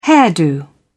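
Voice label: "hairdo", British English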